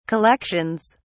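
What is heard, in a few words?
"collections", British English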